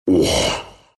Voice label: happy, ru; Ursa; Dota 2

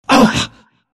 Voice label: phantom lancer pain

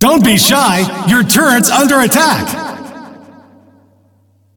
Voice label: Announcer defend; Ringmaster

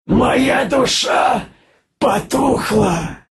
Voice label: death, ru; Clinkz; dota2

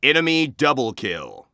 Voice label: Announcer Enemy 2 Kills; Spree Classic; Paladins

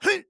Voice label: Stunned Or Frozen; Paladins; Viktor

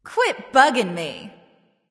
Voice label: Taunt Directed; Rocka Bellona; Ah Muzen Cab

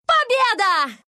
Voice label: win, ru; Dota 2

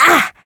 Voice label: pain; Dizzy

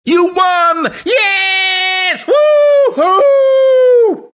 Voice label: announcer victory; Stanley Parable

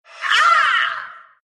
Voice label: pain, ru; dota2

